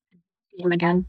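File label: Voice Acting es, female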